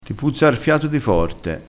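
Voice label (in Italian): "forte", vocabolario dialettale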